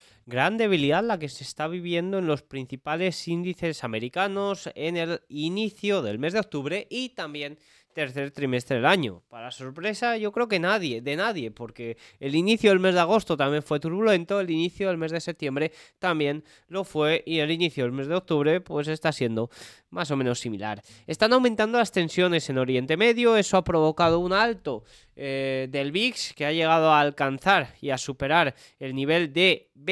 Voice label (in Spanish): radio; noticias bolsa